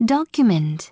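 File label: "document", English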